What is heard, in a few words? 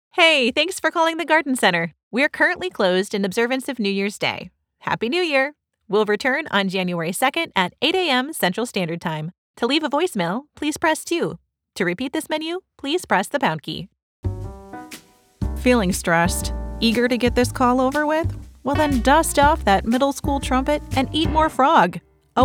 IVR